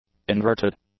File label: "inverted", english; adjectives